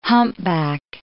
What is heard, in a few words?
"humpback", English